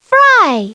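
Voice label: "fry", English; Treasure Galaxy (1994); Learning Company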